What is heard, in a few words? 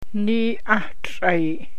Wind (east), Gwich'in; Nii ahtr'aii